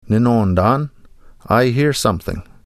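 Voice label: "Nnoondaan", Ojibwe; I hear something; Nanabush Looses The Meat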